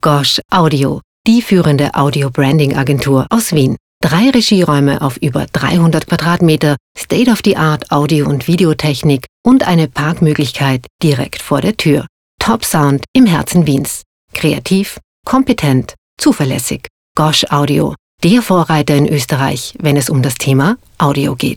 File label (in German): Werbung